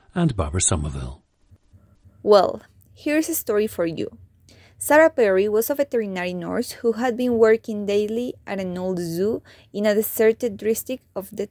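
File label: colombia, International Dialects of English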